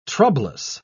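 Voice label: "troublous", British English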